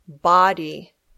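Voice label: "body", English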